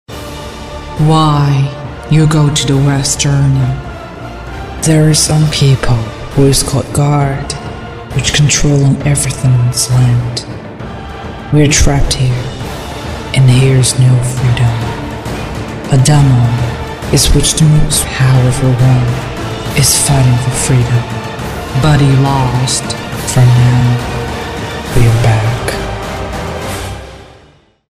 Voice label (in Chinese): 英女 大气英语